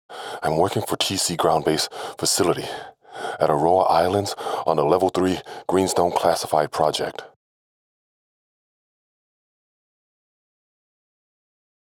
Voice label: Video Game Character Ghost